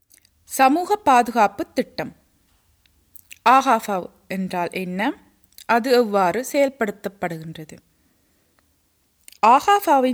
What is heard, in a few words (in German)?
tamil